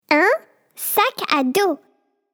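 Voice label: BACKPACK, French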